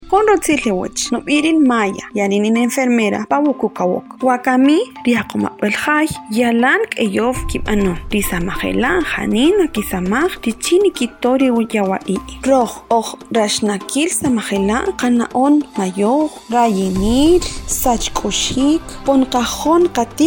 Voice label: SPOT KAQCHIKEL COVID-19